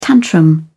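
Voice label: "tantrum", British English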